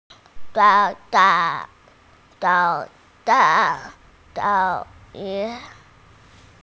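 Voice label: "socks", Mandarin Chinese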